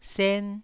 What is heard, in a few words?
"sen1", taiwanese